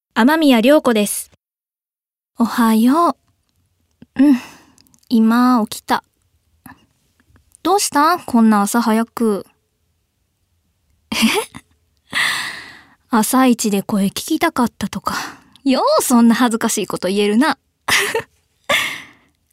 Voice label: drama